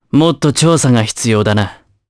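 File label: vox dialogue, voices, Japanese; kingsraid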